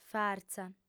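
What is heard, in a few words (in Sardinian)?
"fartza", female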